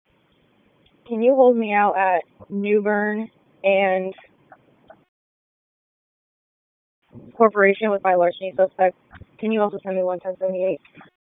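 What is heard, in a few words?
Police Northeast District Disp, radio; Raleigh; wake; p25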